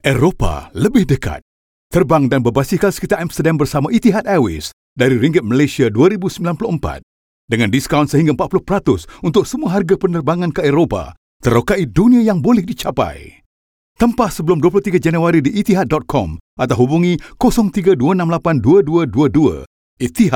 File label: Malay Radio; Etihad